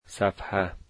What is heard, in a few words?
"page", Dari